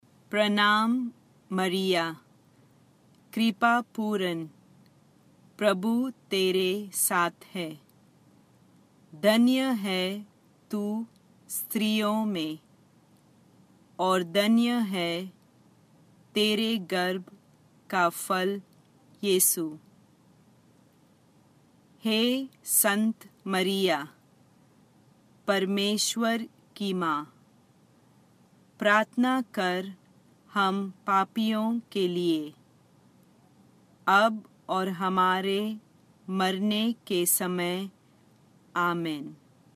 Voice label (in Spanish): ave maria Hindi lento; ave maria idiomas